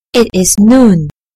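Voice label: "noon", British English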